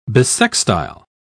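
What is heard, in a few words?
"bissextile", British English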